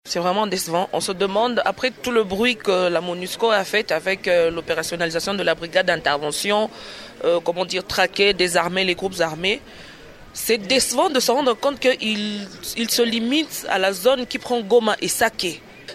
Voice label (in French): Vox Pop